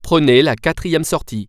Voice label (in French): Fourth, french; waze